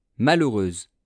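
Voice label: "malheureuse", French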